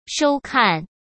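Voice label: "收看", Mandarin Chinese; hsk; chinese-flashcards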